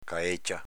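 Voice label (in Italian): "caécia" Veneto dialect; s.f. caviglia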